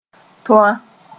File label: "Toan", moore